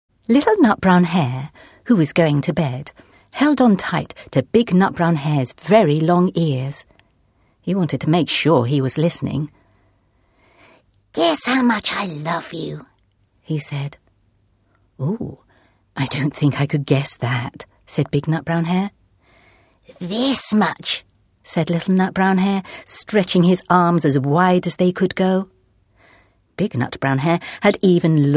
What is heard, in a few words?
Childrens Story